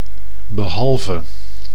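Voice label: "behalve", Dutch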